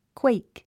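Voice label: "quake", English; 発音 kwéik クエィク; 意味 （寒さ・恐怖で）震える 地震 揺れ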